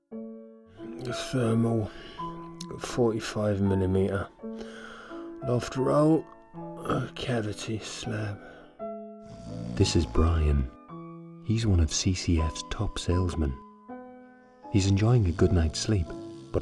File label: commercial